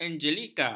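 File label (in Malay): "angelika", Malay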